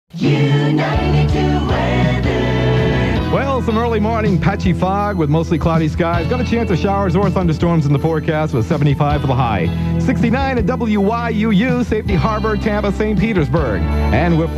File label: RADIO AIR CHECK